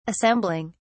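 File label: "assembling", British English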